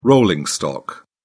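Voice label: "rolling stock", English, British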